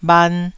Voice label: "bhan1", taiwanese

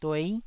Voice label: "duei9", taiwanese syllables

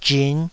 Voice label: "zhin5", Taiwanese syllable

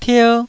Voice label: "tier1", taiwanese Syllables

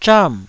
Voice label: "zham4", taiwanese

